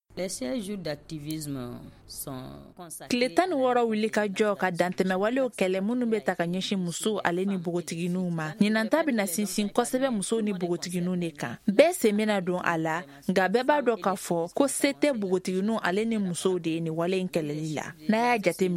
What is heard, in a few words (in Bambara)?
magazine bamanankan